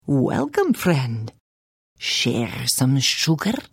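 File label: Hello, Khajiit female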